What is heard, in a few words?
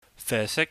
"fesik", Cantonese